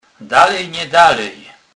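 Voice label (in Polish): "dalej", Kurpie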